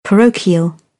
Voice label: "parochial", British; oʊ - əʊ; English